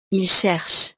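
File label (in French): "ils cherchent", French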